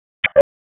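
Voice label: Sheriff's Office Dispatch; wake p25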